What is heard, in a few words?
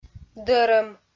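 "дӧрӧм", Komi, female; kpv; audio dict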